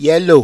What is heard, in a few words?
"YELO", Yoruba, Yellow